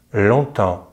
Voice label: "longtemps", French; easypronunciation